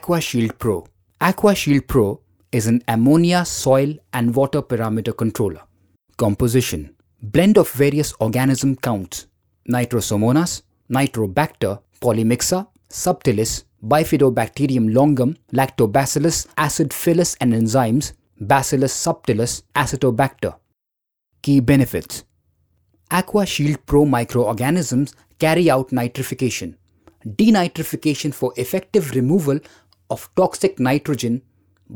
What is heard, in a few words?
VOICE OVER; AQUA SHEILD PRO